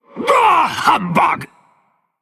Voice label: Male Undead Attack